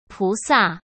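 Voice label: "菩萨", Mandarin Chinese; hsk; chinese flashcards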